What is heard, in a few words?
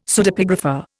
"Pseudepigrapha", Indian; Dictionary